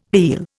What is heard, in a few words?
"heal", Indian